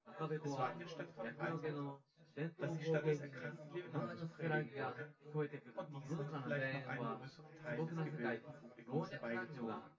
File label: male synth conv sim; SiSEC10; underdetermined